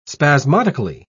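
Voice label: "spasmodically", British English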